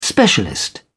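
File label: "specialist", British English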